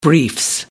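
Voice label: "briefs", British English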